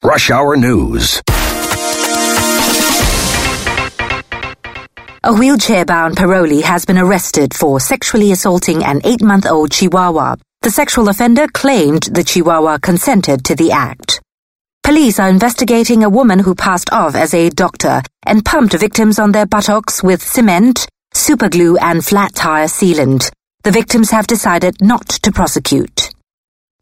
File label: Asian English; Serta Mattress